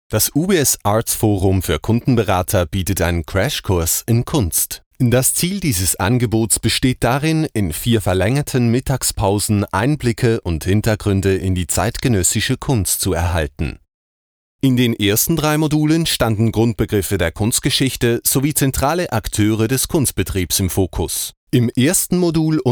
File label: Corporate TV Voiceover; UBS Arts Forum